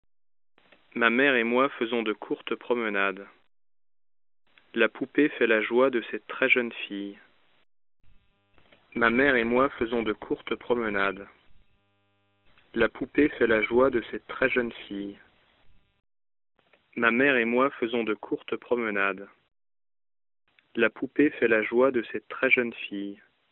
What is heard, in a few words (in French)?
MIC GSM transcodage